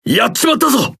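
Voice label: Speech Quick Commands Caramba, Japanese; World Of Warships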